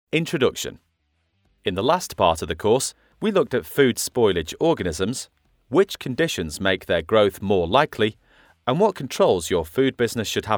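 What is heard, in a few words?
e-learning, Natural Northen Male Voice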